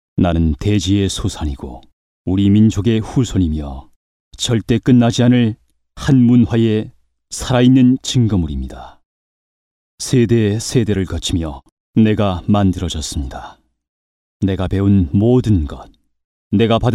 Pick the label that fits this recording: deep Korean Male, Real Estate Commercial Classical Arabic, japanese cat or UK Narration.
deep Korean Male